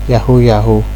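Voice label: "yahoo yahoo", Nigerian Pidgin, male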